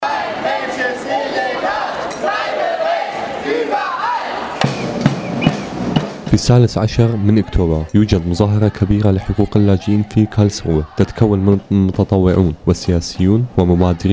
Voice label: Auruf arabish, Sprachnachrichten

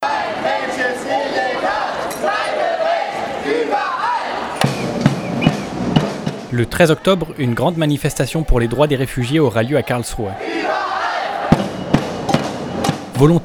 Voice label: Aufruf Franzoesisch, Sprachnachrichten

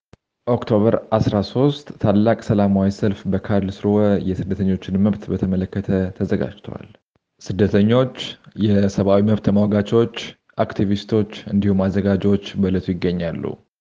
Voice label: Aufruf Amharisch, Sprachnachrichten

